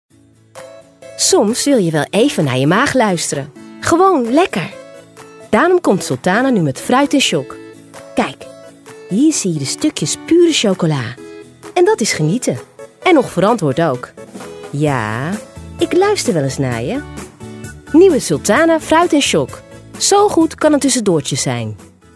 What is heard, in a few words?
VOICE OVER, Voice-over commercials NL; stemactrice